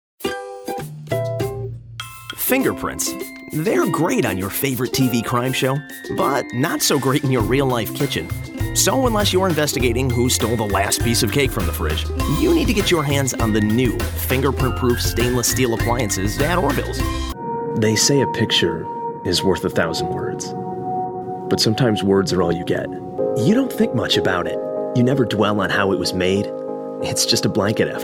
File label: commercial